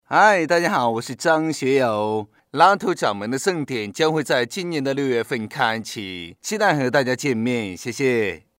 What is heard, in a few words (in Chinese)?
男,模仿